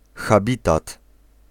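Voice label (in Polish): "habitat", Polish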